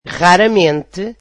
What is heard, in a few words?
portuguese rarely